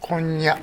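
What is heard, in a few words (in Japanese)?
"konnya", 南山形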